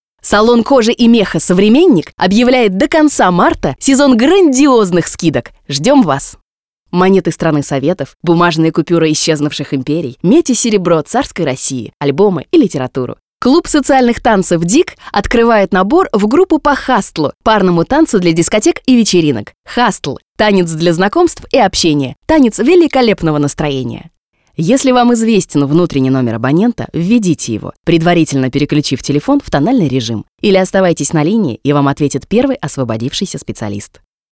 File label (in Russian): dictor